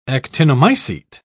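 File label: "actinomycete", British English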